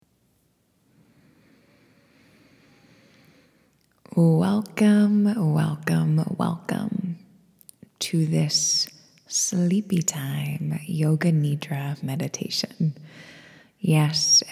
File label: tension drain no music